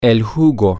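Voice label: male, spanish; the Juice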